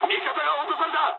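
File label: Japanese aircraft destroyed mood high; War Thunder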